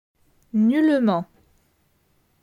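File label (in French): "Nullement", French; grammaire; la negation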